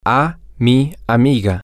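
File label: toMyFriendF, Spanish, male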